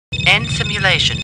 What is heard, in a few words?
Computer Voice; Star Trek; StarTrekLCAR